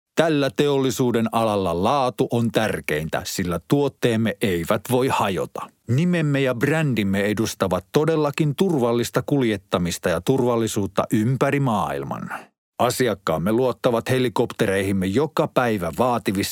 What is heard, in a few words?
male finnish voice over narrative